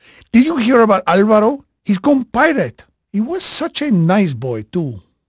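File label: Portuguese male, voice; Pirates Of The Caribbean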